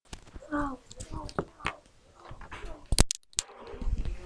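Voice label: "low", spoken word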